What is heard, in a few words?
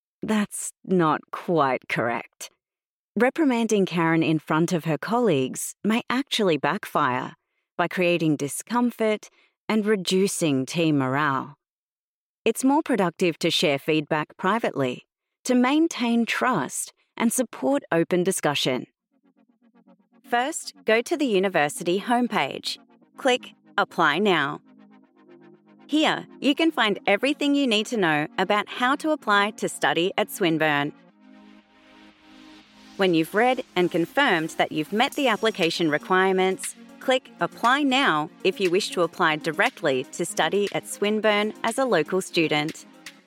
english australian e-learning, australian voice actors